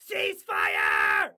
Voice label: Cease Fire, Excited Guy

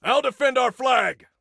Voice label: Self Defend Flag, Soldier Male; Soldier Male 02

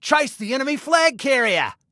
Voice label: Attack Chase, Male

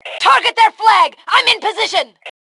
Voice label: Target Flag, Female

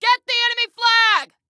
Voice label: Attack Flag, Female

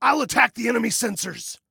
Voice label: Self Attack Sensors, Male

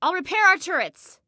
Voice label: Self Repair Turrets, Female; Female 02

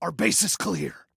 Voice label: Base Clear, Male; Male 01

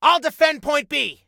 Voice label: DEFEND, Soldier Male

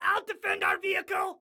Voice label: Self Defend Vehicle, Excited Guy

